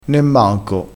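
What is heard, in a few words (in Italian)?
"nemmanco", dialettale crespinese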